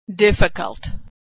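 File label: "difficult", American English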